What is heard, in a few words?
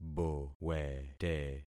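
"bowete", concatenated syllables; Affixing experiment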